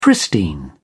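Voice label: "pristine", British English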